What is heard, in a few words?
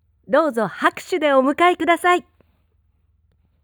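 "douzohakusyudeomukaekudasai", Japanese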